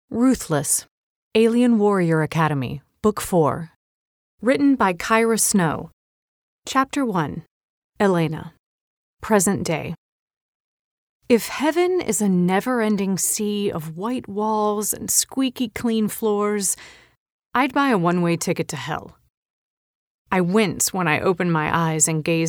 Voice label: Audiobook; Ruthless